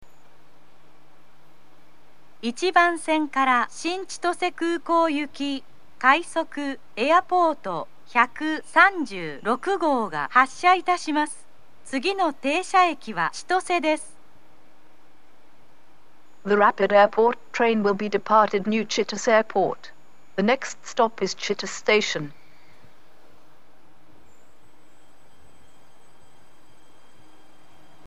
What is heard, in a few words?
rapid airport, station; eniwa; new-chitose-airport; jr-hokkaido